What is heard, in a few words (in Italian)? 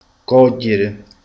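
"raccogliere", Italian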